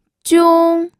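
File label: "jiong1", Mandarin Chinese first tone; pinyin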